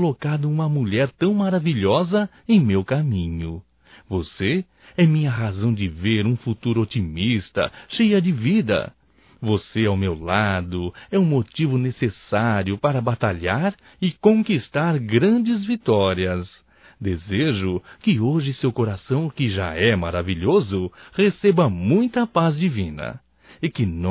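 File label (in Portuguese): NATAL ROMANTICO (Masculino); Natal e ano novo; Telemensagens 2017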